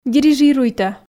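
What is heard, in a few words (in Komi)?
"дирижируйтӧ", Komi, female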